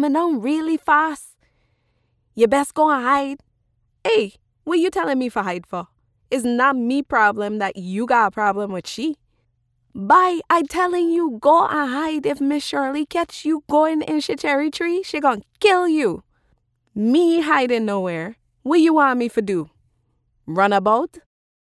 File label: Guyanese Creole